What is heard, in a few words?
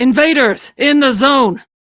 Crew Enemy Spot, Female; Dialog; Warframe; Railjack Crew; Steel Meridian